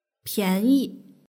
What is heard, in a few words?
"pianyi", Mandarin; shopping